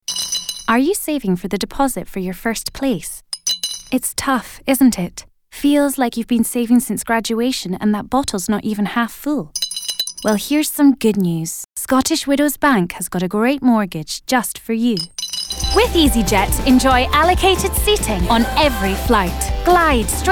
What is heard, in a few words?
montage commercial